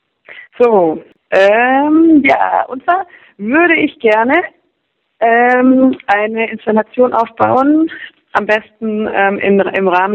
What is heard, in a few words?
Voicemail